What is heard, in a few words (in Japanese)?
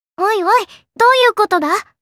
"Doyukoto", Kawaii Voice, Reactions